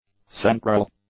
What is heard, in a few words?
"central", English; adjectives